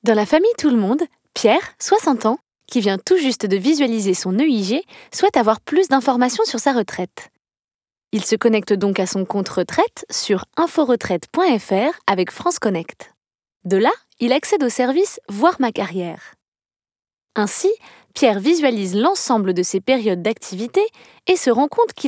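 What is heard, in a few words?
French, female, elearning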